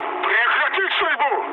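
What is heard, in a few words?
player friendly fire, mood med, Russian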